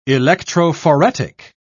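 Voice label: "electrophoretic", British English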